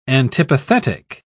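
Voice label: "antipathetic", British English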